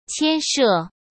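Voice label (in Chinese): "牵涉", Mandarin Chinese; hsk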